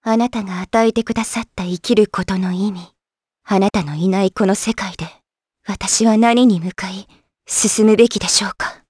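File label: vox Japanese; Hilda; kingsraid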